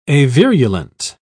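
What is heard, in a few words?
"avirulent", British English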